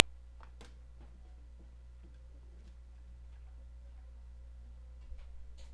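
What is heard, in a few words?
"puppy", spoken word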